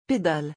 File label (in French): "pédale" French; vocabulary; musical instruments